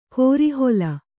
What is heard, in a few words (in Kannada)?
"ಕೋರೆಹಲ್ಲು", Kannada